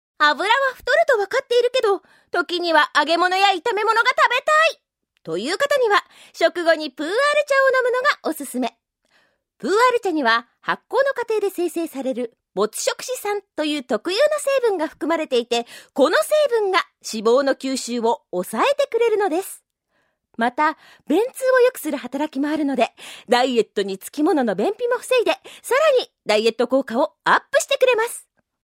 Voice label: narration